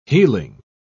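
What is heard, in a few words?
"heeling", British English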